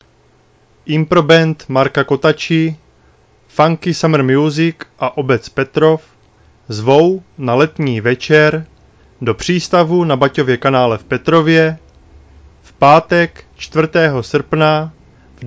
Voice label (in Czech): Improband letní večer, rozhlas